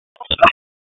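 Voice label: EMS Dispatch; wake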